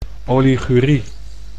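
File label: "oligurie", Dutch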